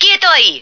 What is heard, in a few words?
"stay down", female